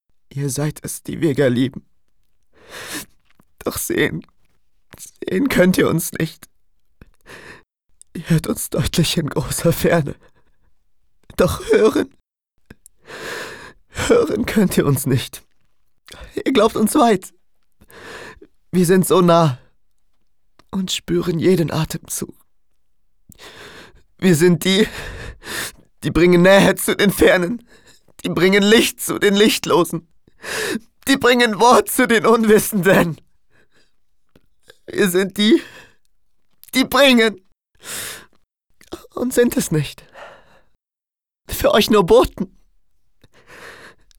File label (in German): traurig, maenner